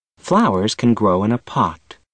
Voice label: male sent